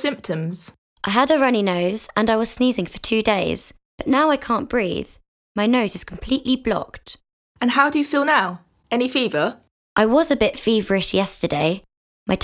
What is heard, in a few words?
Conversation; Bedside Manner Beginner